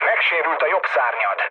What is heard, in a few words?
right wing, mood low, speech hun; War Thunder